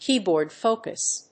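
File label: "keyboard focus", English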